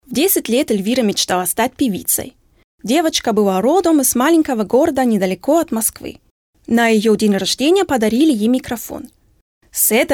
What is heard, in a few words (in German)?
russische Erzählung